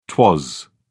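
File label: "twas", English, British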